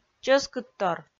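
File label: "чӧскыдтор", Komi, female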